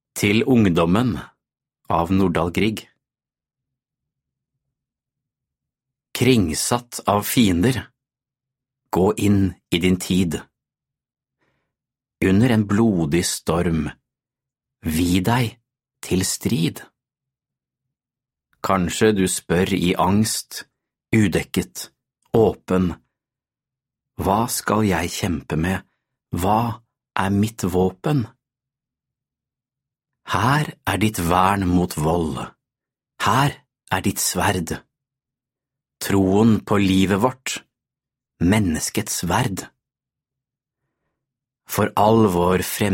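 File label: Norwegian Audiobook Male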